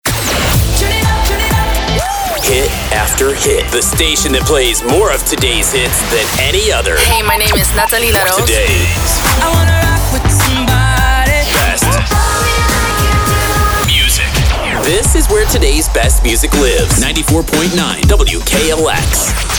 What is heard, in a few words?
Voiceover